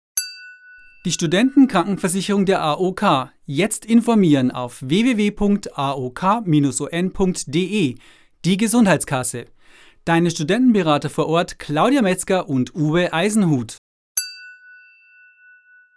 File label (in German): Werbung Reminder; AOK